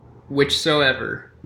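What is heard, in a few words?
"whichsoever" English, US; pronunciations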